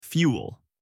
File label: "fuel", voice; space game